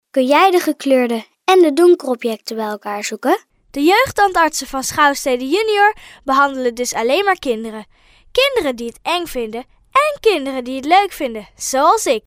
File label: dutch girl voiceover locutora infantil holandesa; locutora infantil de Holanda